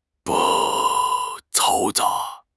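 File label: "嘈杂", Mandarin Chinese; HSK; HSK6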